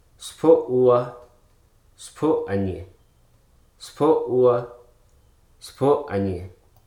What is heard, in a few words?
"immense", Hruso